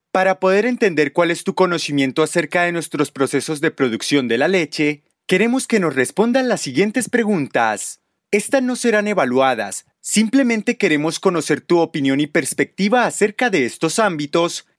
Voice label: SPANISH